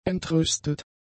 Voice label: "entrüstet", German; adjectives